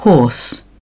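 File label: "horse", British English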